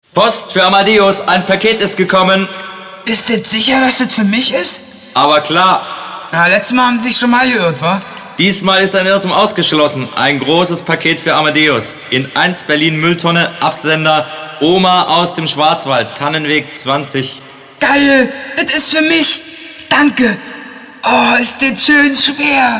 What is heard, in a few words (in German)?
dialekt